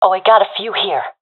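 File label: sees multiple enemies, professional female; vo; agents; Source Model Packs; Counter Strike Model Pack; CS2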